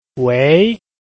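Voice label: "wei2", Mandarin Chinese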